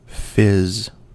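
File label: "fizz", US English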